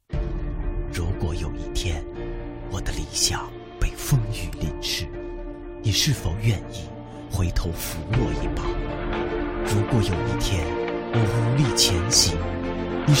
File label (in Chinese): 旁白配音; 湖南卫视变形计